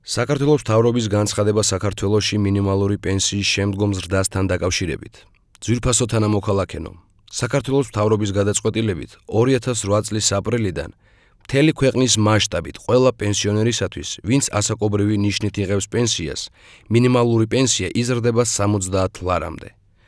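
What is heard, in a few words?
georgian male narration; voice demos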